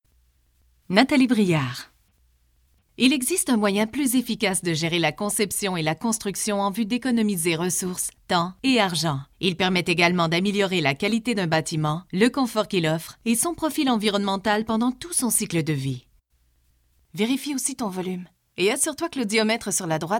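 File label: French Canadian, female, corporate; voice over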